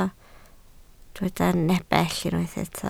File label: "nepell", Welsh